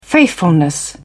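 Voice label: "faithfulness", British English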